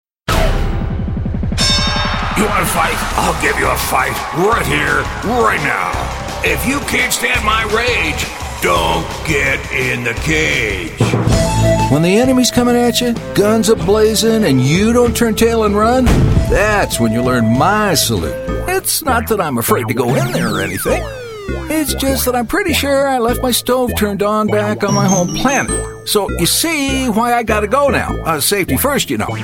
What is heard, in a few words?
english american animation